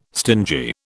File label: "stingy", British